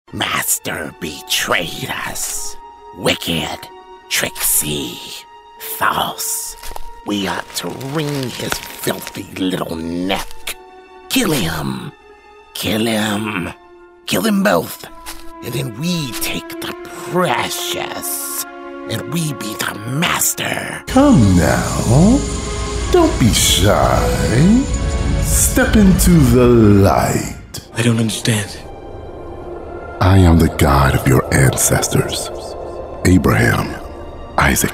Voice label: men animation